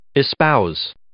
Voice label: "espouse", English